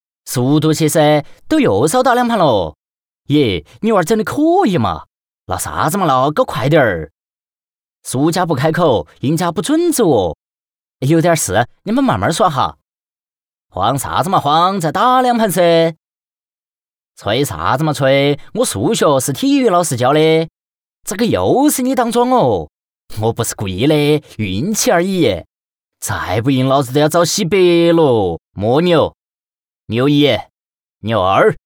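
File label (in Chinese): 棋牌四川话; 牛牛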